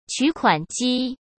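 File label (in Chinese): "取款机", Mandarin Chinese; hsk; chinese flashcards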